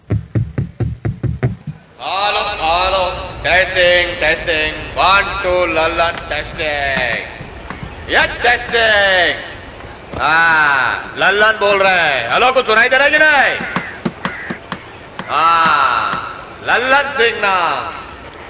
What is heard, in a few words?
Mic Testing